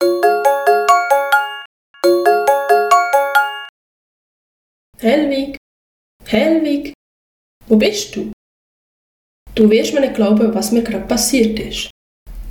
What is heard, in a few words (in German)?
Intro Hexe mit Jingle